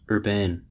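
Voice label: "urbane", American English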